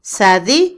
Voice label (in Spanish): orar, Otomí, mujer; Religión; INALI